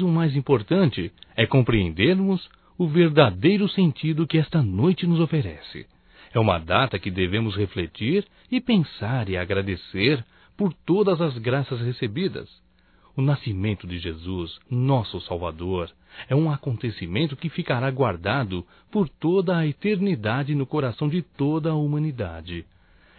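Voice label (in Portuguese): NATAL ROMANTICO (Masculino); Natal e ano novo; Telemensagens 2017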